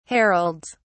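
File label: "heralds", British English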